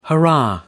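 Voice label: "hurrah", English, British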